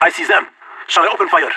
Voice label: radio enemy spotted; Counter Strike Model Pack; CS:GO; leet